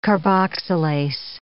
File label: "carboxylase", English